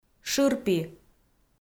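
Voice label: "шырпи", Komi, female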